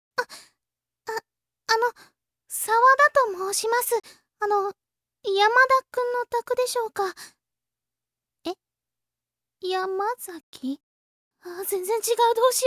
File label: girl call